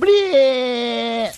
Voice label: "bleh", cartoon; ooh ahh